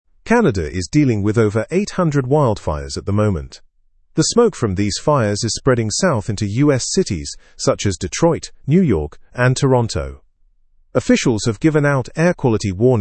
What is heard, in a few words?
english news lower intermediate; canada s wildfires create smoke alert in us